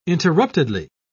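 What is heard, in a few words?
"interruptedly", British English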